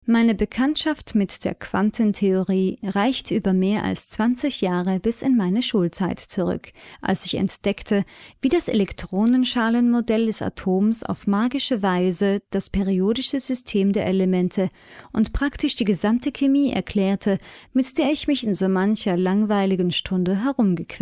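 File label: german female e-learning; voice demos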